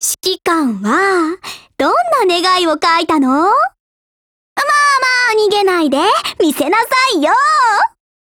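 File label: tanabata, Japanese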